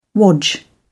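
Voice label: "wodge", English, British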